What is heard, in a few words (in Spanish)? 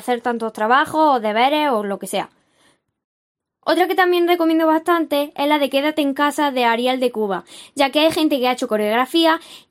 podcast música